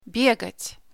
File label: run in russian